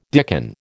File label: "dicken", American